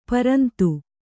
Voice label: "ಪುರಂತ", Kannada